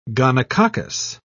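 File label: "gonococcus", British English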